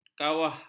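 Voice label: "kawah", Malay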